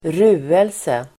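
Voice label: "ruelse", Swedish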